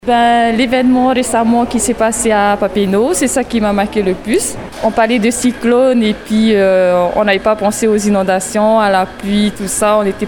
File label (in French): Micro Trottoir; retro 2015